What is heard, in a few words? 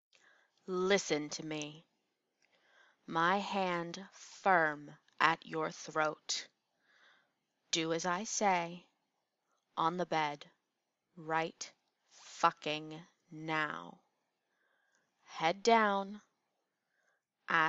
Erotic Poetry, Stern, Tender; My Good Girl; Leather Belt